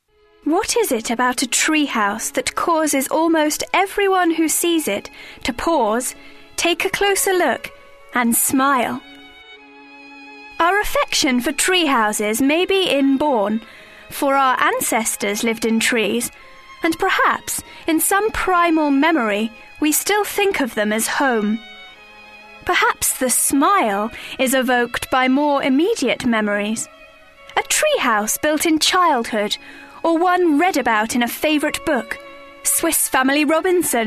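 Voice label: narration, female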